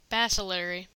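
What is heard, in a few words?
"bacillary", US English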